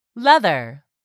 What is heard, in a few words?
"leather", English; 3000 words